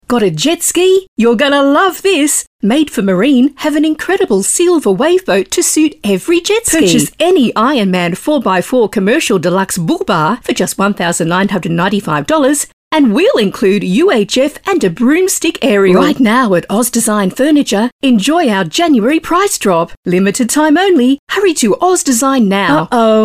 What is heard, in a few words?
Hard Sell